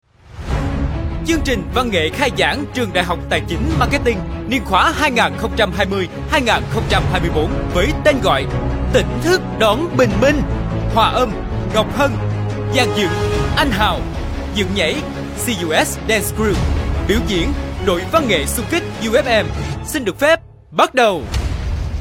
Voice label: vietnamese movie trailers

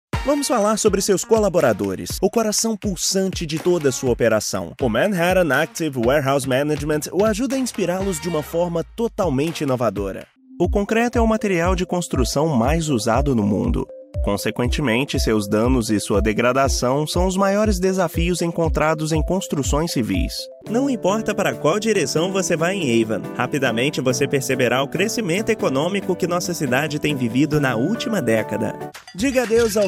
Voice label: portuguese brazilian explainer videos

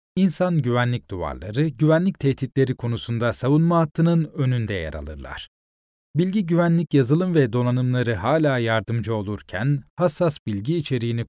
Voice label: turkish e-learning

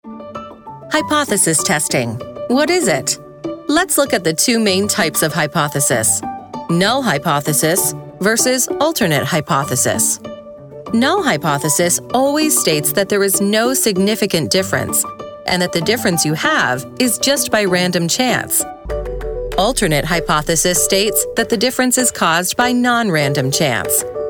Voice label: English Canadian e-learning

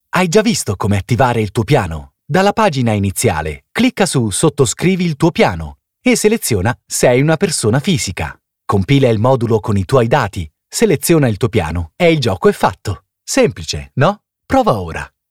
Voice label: italian promos

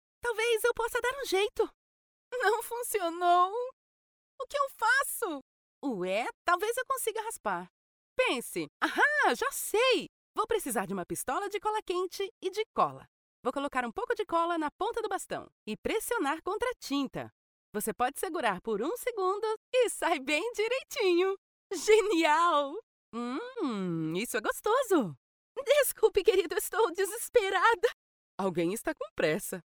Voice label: portuguese brazilian impersonations; Brasil; locutora de portugués brasileño

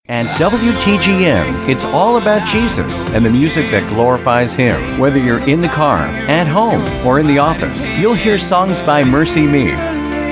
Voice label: english american radio imaging; professional American voice talent

